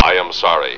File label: Robot I Am Sorry